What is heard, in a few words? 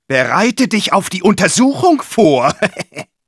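taunts, german; Bereite dich auf die Untersuchung vor... (laughs); Medic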